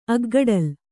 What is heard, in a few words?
"ಅಗ್ಗಡಲ್", Kannada